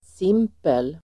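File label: "simpel", Swedish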